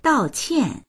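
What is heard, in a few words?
"dao4qian4", Mandarin Chinese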